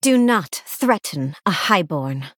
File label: Sorcerer Female Night Elf